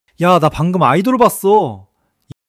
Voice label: excitement